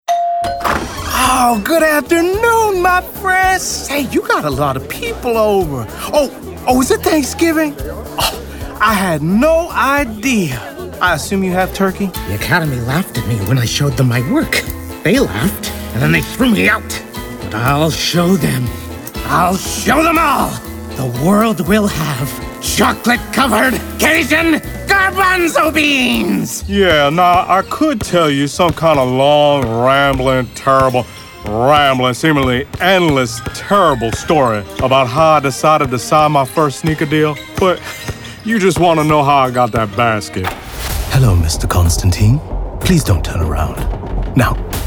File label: vo animation